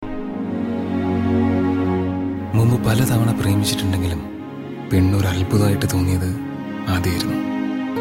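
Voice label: Malayalam Dialogue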